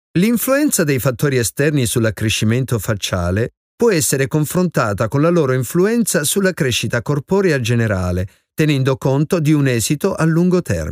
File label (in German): industriefilm